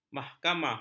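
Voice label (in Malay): "mahkamah", Malay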